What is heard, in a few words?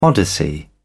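"odyssey", English, British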